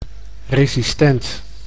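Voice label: "resistent", Dutch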